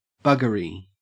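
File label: "buggery", Australian English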